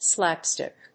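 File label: "slapstick", English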